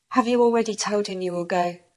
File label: Voice Acting es F